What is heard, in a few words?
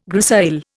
"grisaille", Indian